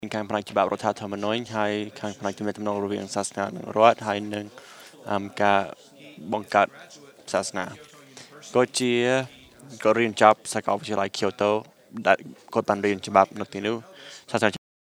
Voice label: Cambodian Session, khmer; iclrs symposium 2009